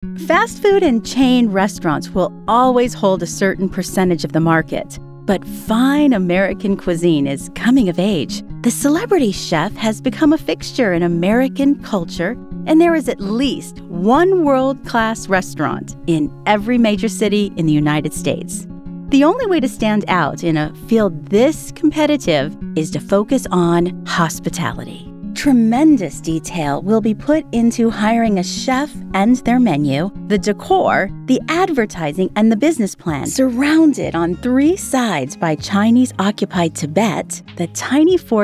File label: Narration; Female voices